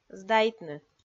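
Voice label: "сдайтны", Komi, female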